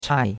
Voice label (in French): "chai4", chinois; Cours de Chinois